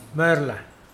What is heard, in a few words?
"sleep", Mokilese